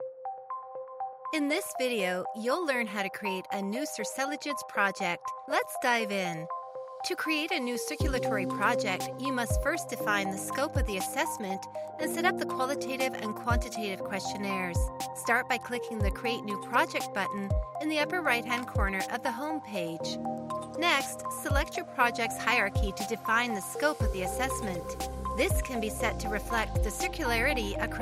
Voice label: Elearning

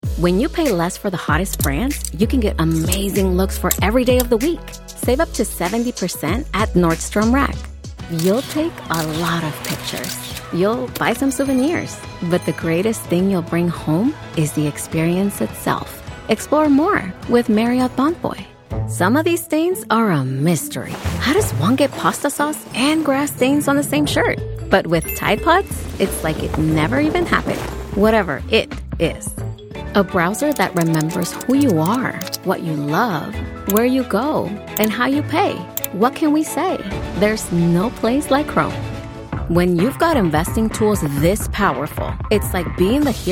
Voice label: English

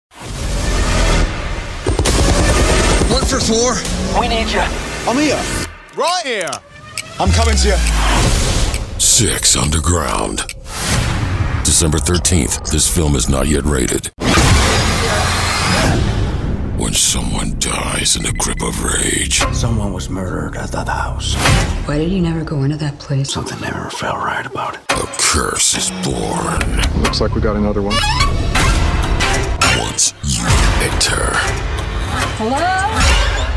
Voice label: TRAILER

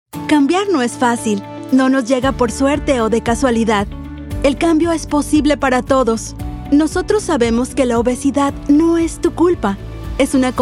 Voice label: radio spot; Jackson Health System

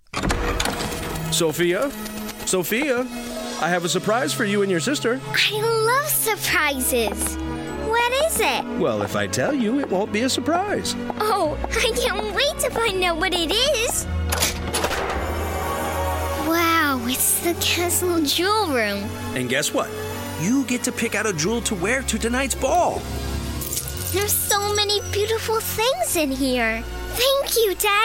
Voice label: Animation Voiceover; SOFIA THE FIRST

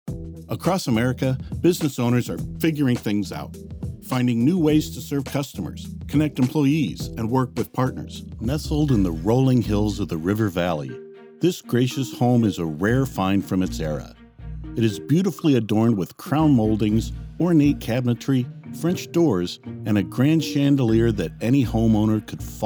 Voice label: Corporate

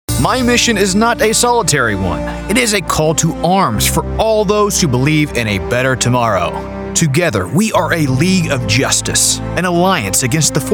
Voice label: Character

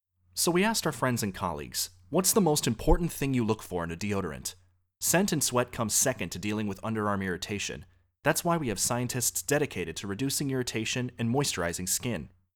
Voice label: Internet Audio

